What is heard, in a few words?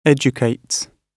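"educates", British English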